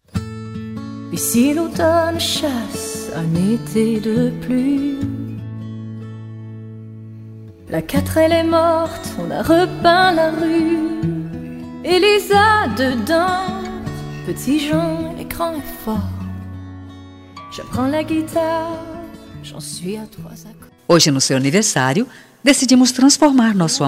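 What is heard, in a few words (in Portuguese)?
aniversario PARA NETA FEM, telemensagem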